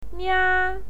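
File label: "nia" first tone, pinyin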